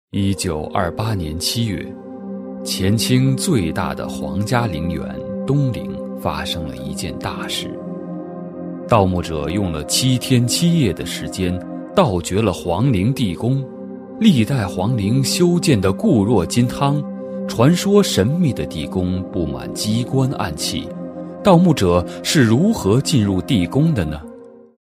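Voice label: Chinese documentary, male, Mandarin Chinese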